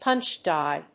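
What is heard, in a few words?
"punch die", English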